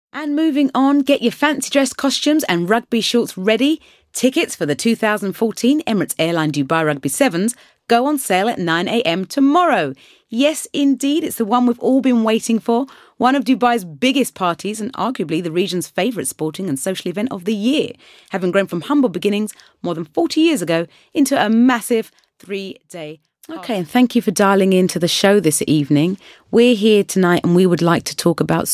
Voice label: Radio